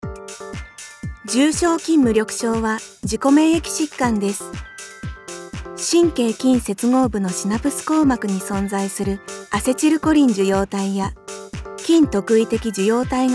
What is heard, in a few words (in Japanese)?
ナレーション【医療ナレーション】; ◇出身地：長野県